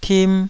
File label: "tim2", taiwanese Syllables